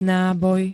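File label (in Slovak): "náboj", Slovak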